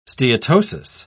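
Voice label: "steatosis", British English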